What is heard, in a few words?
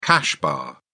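"cash bar", British English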